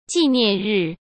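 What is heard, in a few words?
"纪念日", Mandarin Chinese; hsk; chinese-flashcards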